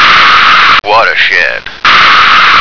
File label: "watershed", American